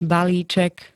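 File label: "balíček", Slovak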